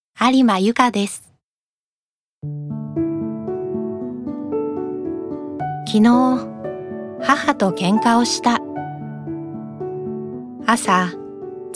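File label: commercial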